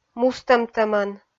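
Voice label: "мустӧмтӧмӧн", Komi, female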